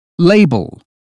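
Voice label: "label", British phonetics; 英语音标发音（剑桥版）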